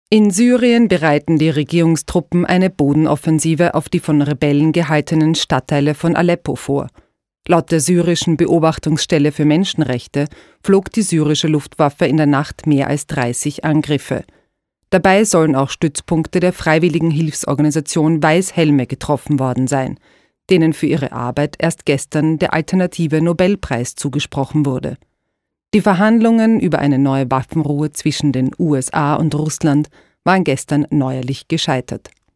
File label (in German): Nachrichten